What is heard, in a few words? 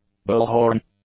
"bullhorn", English; nouns